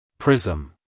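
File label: "prism", American English, male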